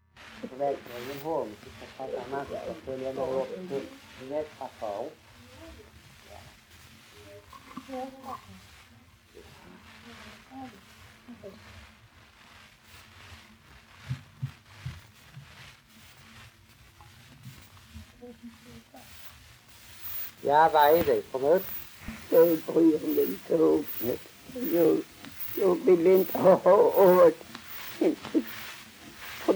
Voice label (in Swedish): Strövelstorp SK, dialekter; Folkminnen